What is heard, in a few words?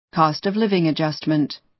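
"cost of living", American English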